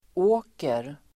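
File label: "åker", Swedish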